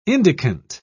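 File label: "indicant", British English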